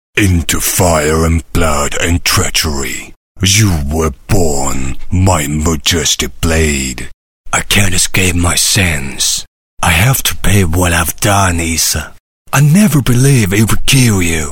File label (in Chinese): 英男 角色 魔王工匠; 无尽剑装3; 赛里斯